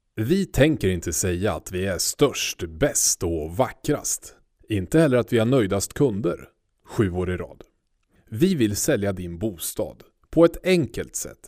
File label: swedish voiceover